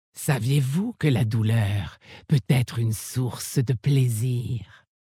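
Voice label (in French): French Femme Flirt; Orc Maghar; Douleur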